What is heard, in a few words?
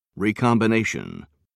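"recombination", British English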